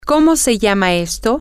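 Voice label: what Is This Called, Spanish, female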